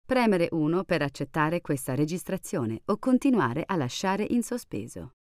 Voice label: Voicemail Save Operator, voice prompts billing, italian